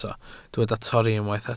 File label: "torri", Welsh